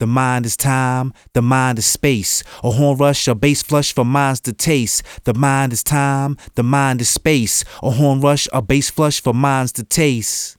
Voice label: Vocal Low Shelf 250 Hz Boost 3 dB